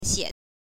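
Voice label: "xie", Mandarin Chinese, neutral tone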